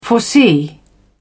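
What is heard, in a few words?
"foresee", British English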